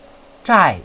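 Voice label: "zhai4", Chinese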